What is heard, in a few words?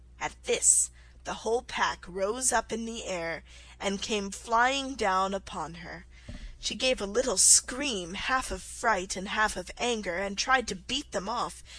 alice in wonderland slow